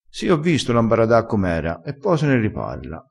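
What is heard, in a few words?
"ambarada", Italian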